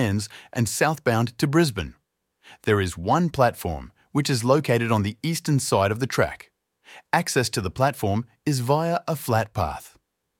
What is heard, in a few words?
Station map audio description